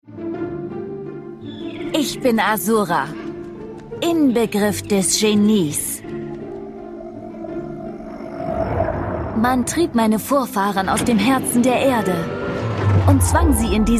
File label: female german commercial; ideasonora